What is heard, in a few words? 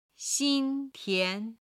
"xin tian", chinese